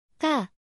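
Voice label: "까", Korean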